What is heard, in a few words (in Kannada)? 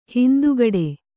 "ಹಿಂದುಗಡೆ", Kannada